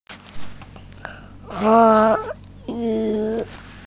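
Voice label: "crocodile", Mandarin Chinese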